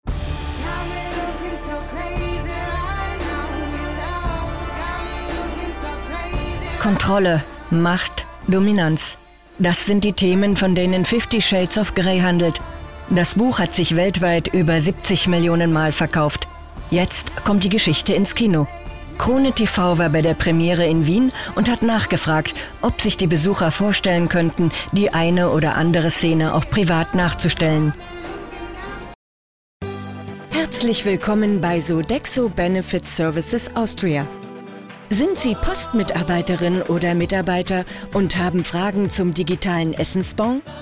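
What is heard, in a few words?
German, female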